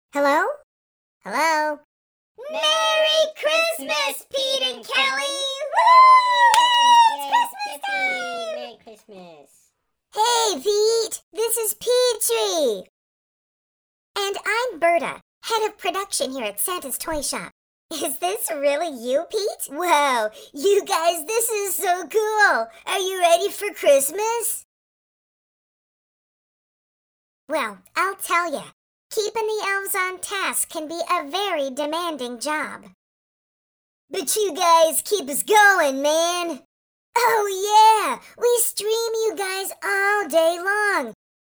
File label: Character Acting; Santaselves